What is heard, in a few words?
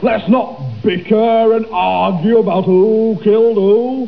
male taunt; starsiege